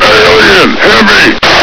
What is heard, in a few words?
heavy, military; svencoop; echoes